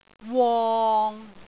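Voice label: "wong6", Cantonese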